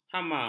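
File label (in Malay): "hama", Malay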